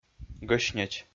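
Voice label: "гӧснеч", Komi, male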